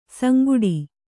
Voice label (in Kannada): "ಸಂಗುಡಿ", Kannada